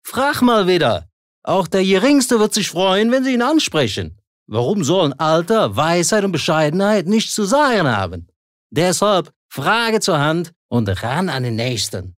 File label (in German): Dialekt Rheinisch